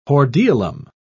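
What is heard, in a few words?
"hordeolum", British English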